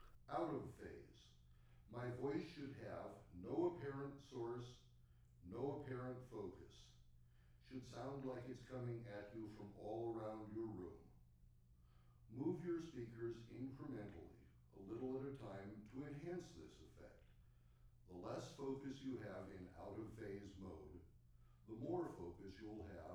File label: Voice Out-Of-Phase; Test Burn-In CD